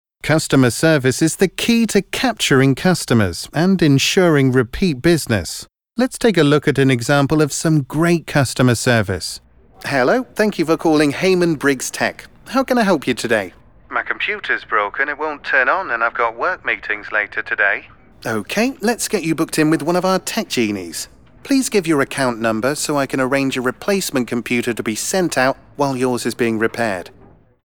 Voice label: Customer Service, british elearning voiceover